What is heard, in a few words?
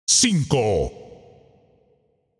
Announcer Count; 05